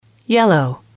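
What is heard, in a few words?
"yellow", English, female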